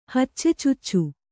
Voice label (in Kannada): "ಹಚ್ಚೆಚುಚ್ಚು", Kannada